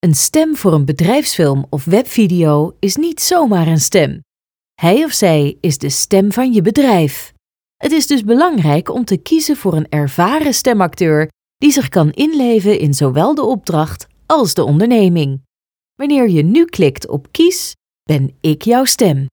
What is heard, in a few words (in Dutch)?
BEDRIJFSFILM